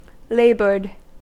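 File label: "laboured", American English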